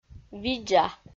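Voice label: "видза", Komi, female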